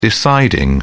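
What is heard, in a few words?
"deciding", English, British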